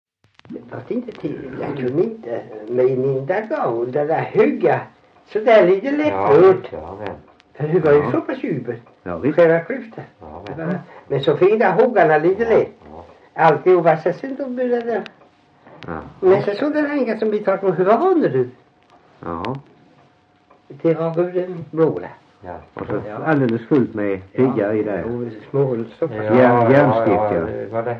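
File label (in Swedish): Värö Halland, dialekter; Folkminnen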